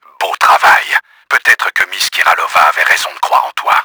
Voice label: happy, french; KRAMER; Battle Engine Aquila

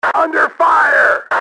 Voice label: under fire, radio male; XBOX

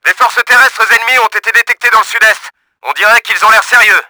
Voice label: TANKS, french; Battle Engine Aquila; XBOX

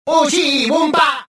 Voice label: suspicious, rodian

